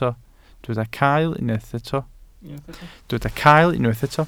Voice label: "cael", Welsh